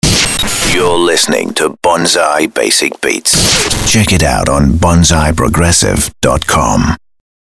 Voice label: basic beats liner; bonzai